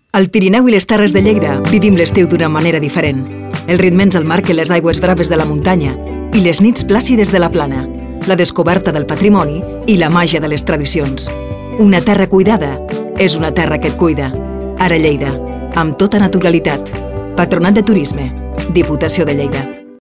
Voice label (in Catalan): radio, CAT; Turisme LLeida; campanya de publicitat estiu 2026